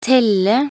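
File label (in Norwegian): "telle", Norwegian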